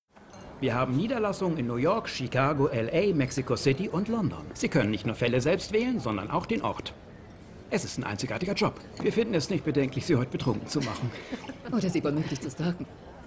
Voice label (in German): synchron; blue bloods